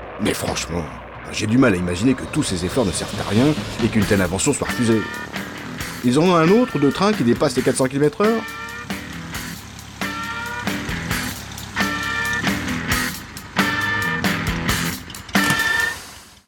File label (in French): documentaire aérotrain